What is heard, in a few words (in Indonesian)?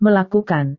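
"melakukan", Indonesian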